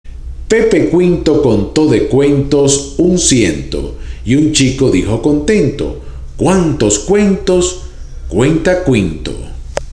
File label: PEPE CUINTO, spanish tongue twisters; 46 Spanish Tongue Twisters To Perfect Your Pronunciation